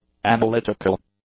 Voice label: "analytical", English; adjectives

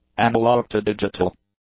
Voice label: "analog-to-digital", English; adjectives

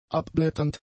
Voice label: "abblätternd", German; adjectives

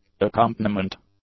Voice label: "accompaniment", English; nouns

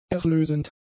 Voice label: "erlösend", German; adjectives

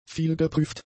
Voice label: "vielgeprüft", German; adjectives

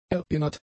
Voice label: "erinnert", German; adjectives

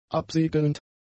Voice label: "absegelnd", German; adjectives

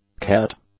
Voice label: "cat", English; nouns

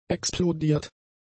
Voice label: "explodiert", German; adjectives

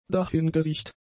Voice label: "dahingesiecht", German; adjectives

